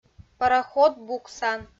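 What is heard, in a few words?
"паракод буксан", Komi, female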